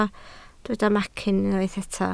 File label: "macyn", Welsh